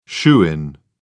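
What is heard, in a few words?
"shoo-in", British English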